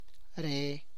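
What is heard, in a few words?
Tlacochahuaya Zapotec; there that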